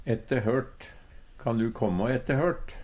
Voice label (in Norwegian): "ettehørt", Numedalsmål; etter kvart; Kan du kåmmå ettehørt?